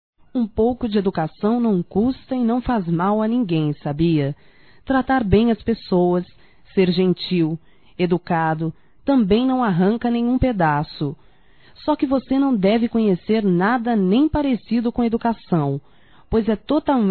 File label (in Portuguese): Gente mal educada mulher para Homem Feminino, Broncas; Telemensagens 2017